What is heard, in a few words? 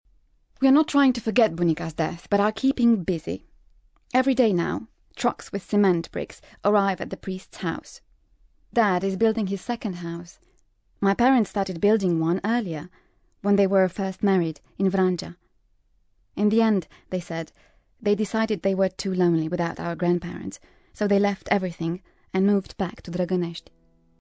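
Romanian English, female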